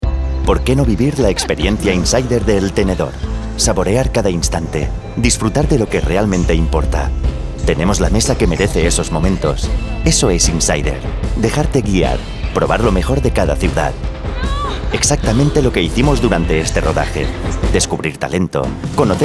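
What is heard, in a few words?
Spanish male corporate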